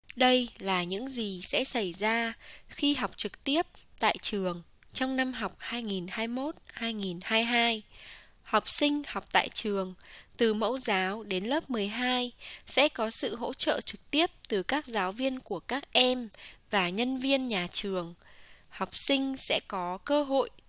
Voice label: Learning at School Vietnamese

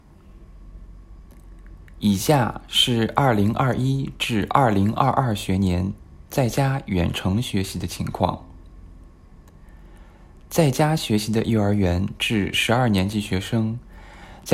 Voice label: Mandarin

